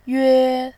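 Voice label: "yue", Mandarin Chinese, tone 1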